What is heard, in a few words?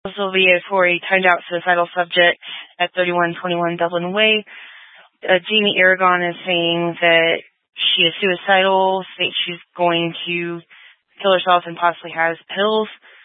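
Sheriff Dispatch, Scanner audio recording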